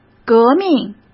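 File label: "革命", Mandarin Chinese; hsk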